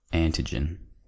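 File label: "antigen", American English; pronunciations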